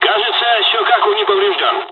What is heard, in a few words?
dialogs russian; War Thunder